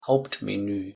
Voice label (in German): "Hauptmenü", de